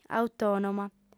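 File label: "autònoma", female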